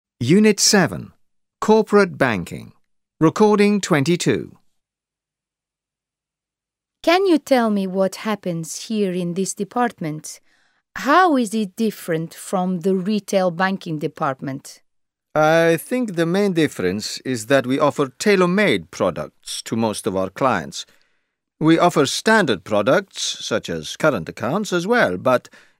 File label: English platform, english e-learning platform; VUB